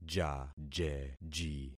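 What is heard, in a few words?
"jajeji", concatenated syllables; Affixing experiment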